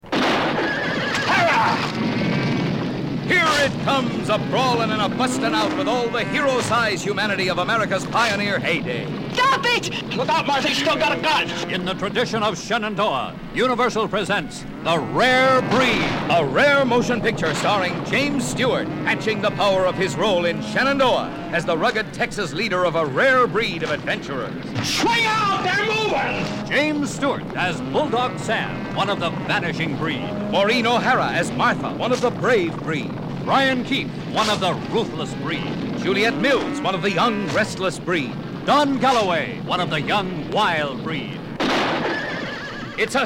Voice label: Radio Spot; Rare Breed